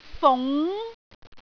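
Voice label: "fung2", Cantonese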